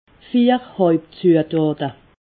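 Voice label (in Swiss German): "hauptzuetate", Alsatian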